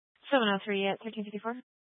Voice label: Law Enforcement Channel; Garfield County; Channel 2; Glenwood Springs PD

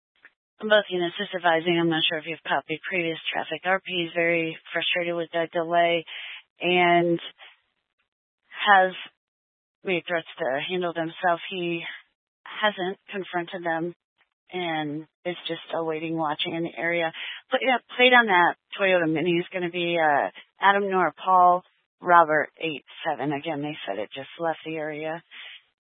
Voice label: Police Dispatch

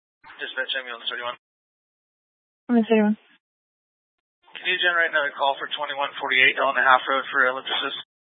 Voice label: Grand Junction Fire Department Dispatch

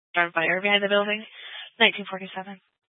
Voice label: Grand Junction Fire Department Dispatch

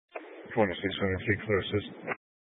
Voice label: Sheriff Dispatch; Mesa County

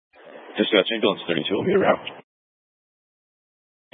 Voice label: Fire Dispatch

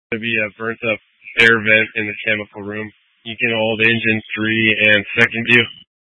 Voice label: Incident Tac 1, scanner

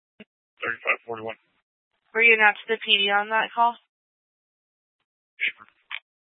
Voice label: Police Dispatch; Police 1 Dispatch

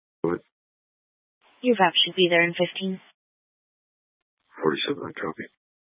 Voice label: Sheriff Dispatch, scanner

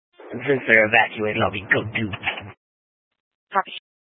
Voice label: Sheriff Dispatch, scanner

